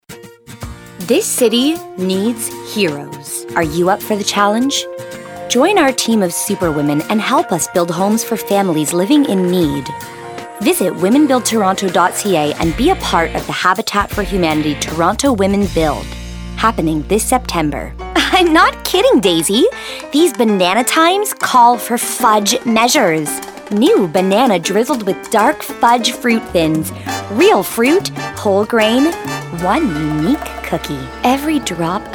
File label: Commercial; TaDa